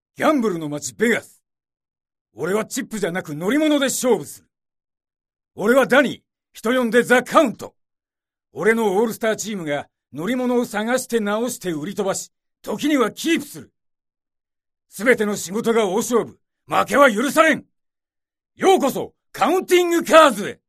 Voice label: narration